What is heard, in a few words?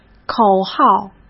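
"口号" Mandarin Chinese; hsk; chinese-flashcards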